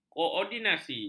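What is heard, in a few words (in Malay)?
"koordinasi", Malay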